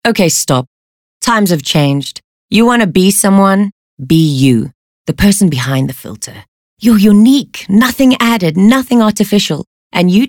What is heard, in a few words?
English Conversational